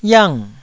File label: "yang4", taiwanese Syllables